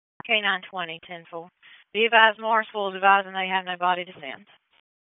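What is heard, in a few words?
Dispatch, p25; WSO DISP 1; wake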